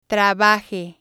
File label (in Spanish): "trabaje", spanish